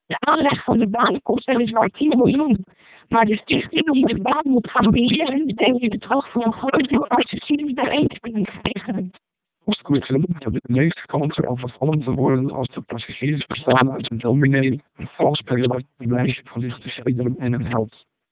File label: dutch, speechsamples, MELPe 2400